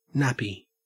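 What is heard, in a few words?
"nappy", English, Australian accent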